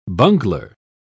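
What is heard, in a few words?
"bungler", British English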